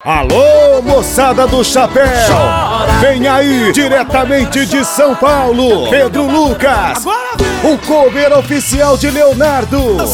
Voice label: chamada de rodeio; arena show

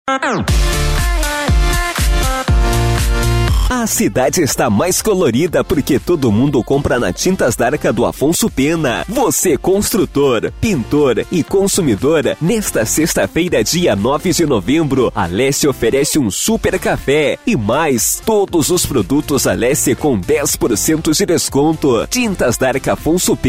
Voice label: vinheta propaganda

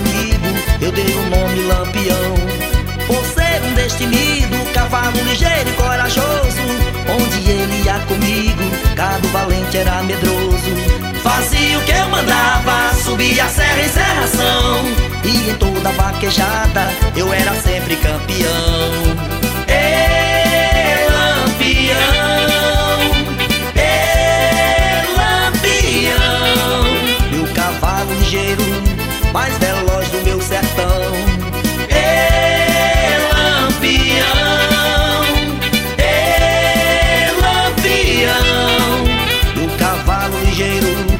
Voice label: Locutor

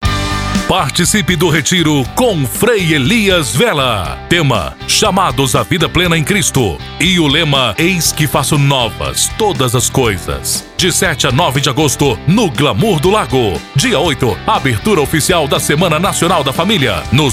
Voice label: VOZ IMPACTO GRAVE vinheta; vinheta para retiro espiritual catolico evangelico espirita budista

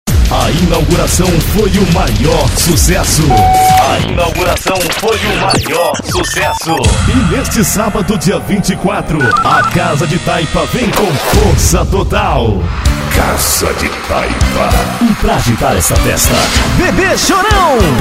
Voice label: chamada locutor; casa de taipa

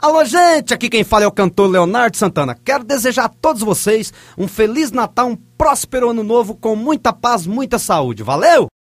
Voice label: mensagem de fim de ano para radios

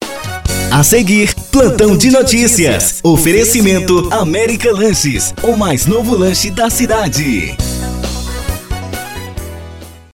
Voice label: VOZ IMPACTO GRAVE vinheta a seguir plantao de noticias para radio; programacao de radio vinhetas

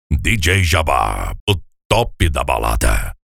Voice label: vinheta top voice over

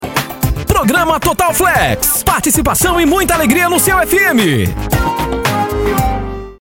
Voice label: locutor

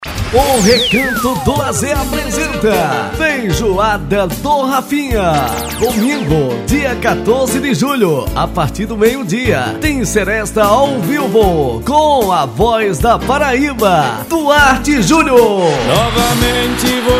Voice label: locutor spot festa

